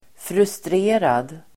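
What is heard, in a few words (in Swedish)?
"frustrerad", Swedish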